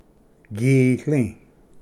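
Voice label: "gitli", Cherokee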